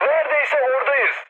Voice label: near waypoint, mood high, speech tur; War Thunder